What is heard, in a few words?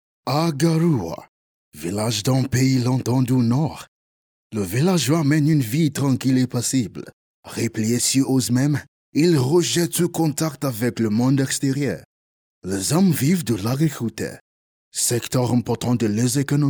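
African French, male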